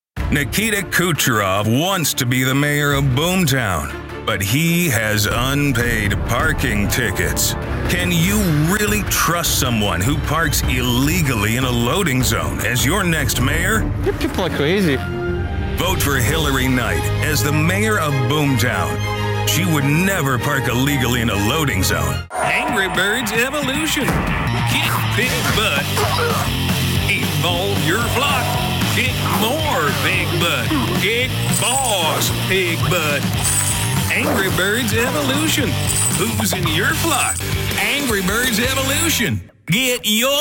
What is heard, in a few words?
Commercial Comic